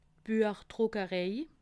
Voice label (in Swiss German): "buechdruckerei", alsace